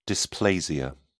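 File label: "dysplasia", British English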